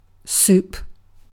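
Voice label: "soup", British English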